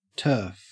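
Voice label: "turf", Australian English; pronunciations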